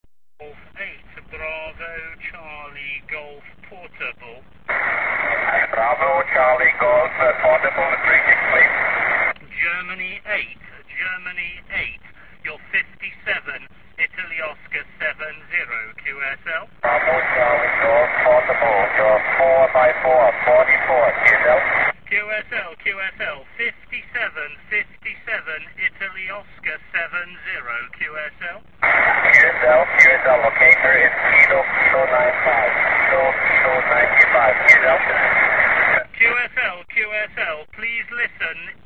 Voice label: qso